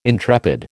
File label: "intrepid", American